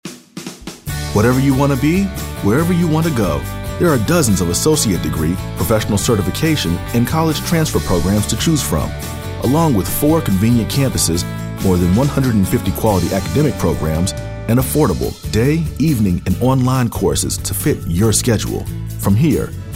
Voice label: upbeat

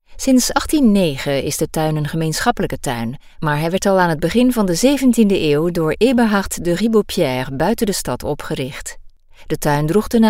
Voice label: Dutch Audio Guide

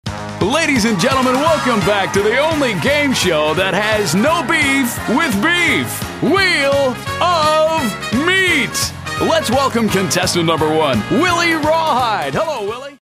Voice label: gameshow

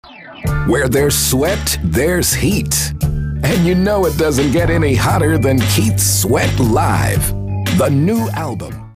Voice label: urban mature

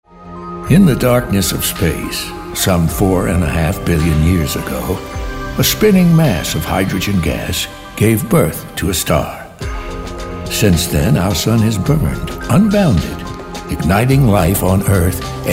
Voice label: storyteller

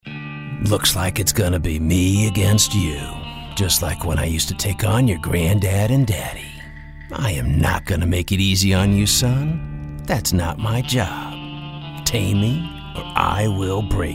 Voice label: cowboy

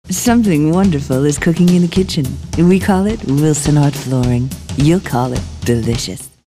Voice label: classy

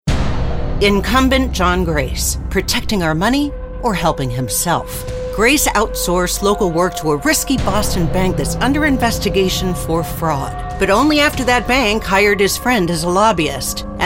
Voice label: Fresh Voice Positive

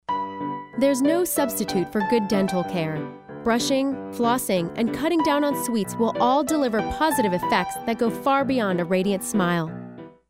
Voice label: announcer

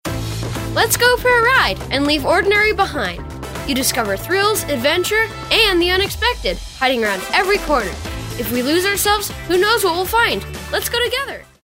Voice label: kid next door

